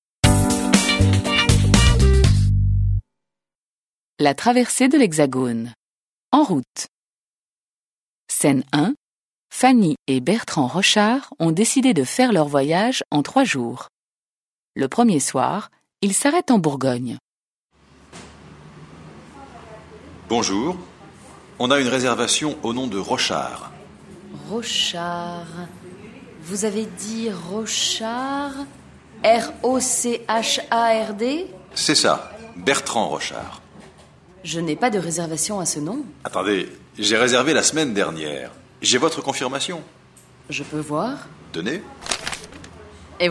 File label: French